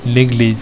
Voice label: "Eglise", french